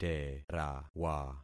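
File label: "terawa", concatenated syllables; Affixing experiment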